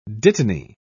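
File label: "dittany", British English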